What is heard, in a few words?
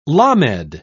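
"lamed", British English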